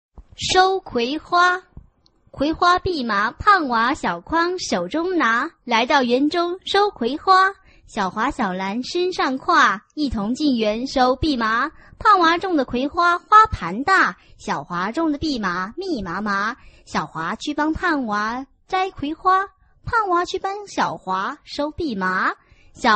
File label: chinese tongue twister